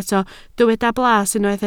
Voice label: "blas", Welsh

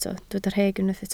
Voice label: "rheg", Welsh

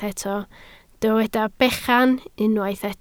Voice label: "bechan", Welsh

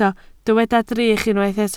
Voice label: "drych", Welsh

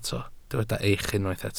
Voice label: "eich", Welsh

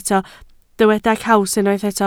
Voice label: "caws", Welsh

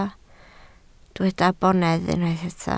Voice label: "bonedd", Welsh

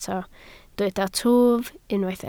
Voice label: "twf", Welsh

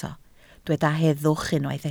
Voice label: "heddwch", Welsh

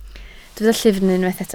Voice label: "llyfn", Welsh

